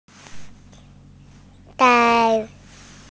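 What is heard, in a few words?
"dai1", Mandarin Chinese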